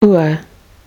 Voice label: vowel in tour, Kiwi English